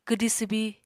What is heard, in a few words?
"kwdi swbi", Apatani